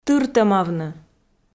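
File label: "тыртӧмавны", Komi, female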